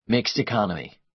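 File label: "mixed economy", American English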